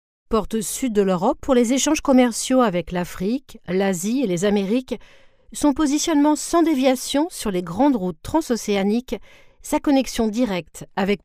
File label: locutora francesa french voiceover